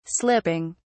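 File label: "slipping", British English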